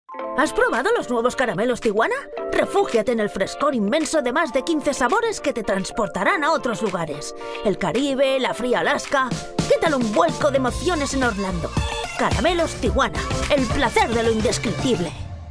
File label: COMMERCIAL, Spanish, female